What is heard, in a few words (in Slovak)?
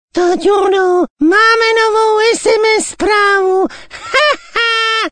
sms hlásky cz